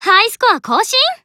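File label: Voice Battle High Score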